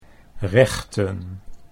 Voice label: "rechten", Dutch

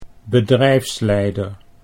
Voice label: "bedrijfsleider", Dutch

